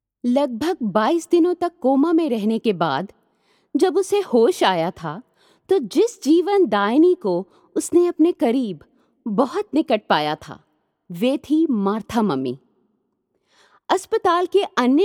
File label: story Hindi